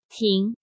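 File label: "Tíng", Chinese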